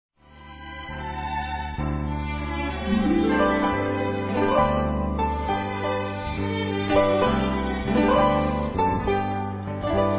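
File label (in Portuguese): NEUTRO, Feminino; Telemensagens; Natal e Ano novo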